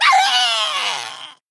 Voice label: Female Goblin Death